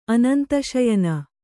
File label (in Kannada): "ಅನಂತಶಯನ", Kannada; anantaśayana; noun